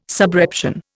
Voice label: "subreption", Indian

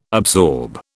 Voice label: "absorb", British

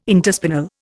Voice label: "interspinal", Indian

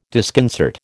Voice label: "disconcert", American

